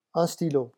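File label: "un stylo", French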